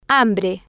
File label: "hambre", Spanish